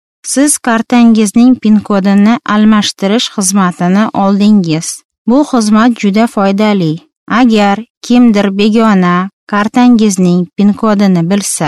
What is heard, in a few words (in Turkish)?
Ozbekce Kadin, seslendirme; kadin sesler